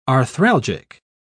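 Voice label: "arthralgic", English, British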